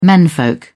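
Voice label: "menfolk", British English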